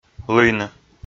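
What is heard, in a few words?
"лыйны", Komi, male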